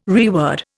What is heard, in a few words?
"reword", Indian